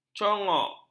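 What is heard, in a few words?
"congok", Malay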